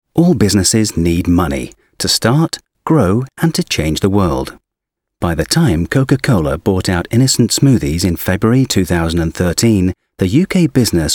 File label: British Voiceover eLearning Video; EQUITY CROWFUNDING